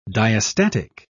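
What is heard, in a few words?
"diastatic", British English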